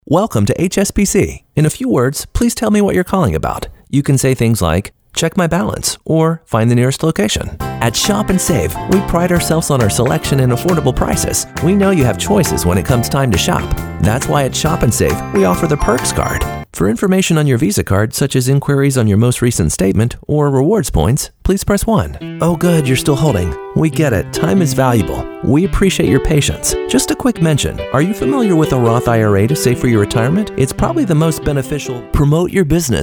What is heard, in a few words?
english american ivr